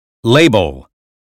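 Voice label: "label", American phonetics; 英语音标发音（剑桥版）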